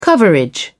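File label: "coverage", British English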